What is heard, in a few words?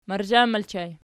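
"marzhan" ergative plural, Archi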